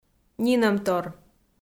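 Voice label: "нинӧмтор", Komi-Zyrian, female; kpv audio dict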